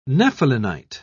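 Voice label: "nephelinite", British English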